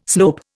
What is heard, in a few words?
"slope", Indian